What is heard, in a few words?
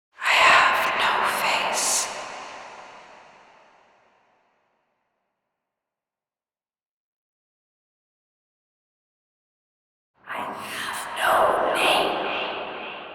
Grandmother Tale Story; Dagath; Naberus